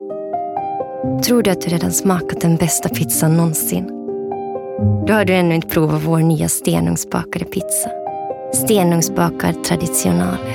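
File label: Swedish female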